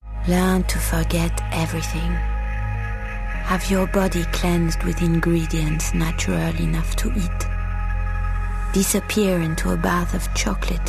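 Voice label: French English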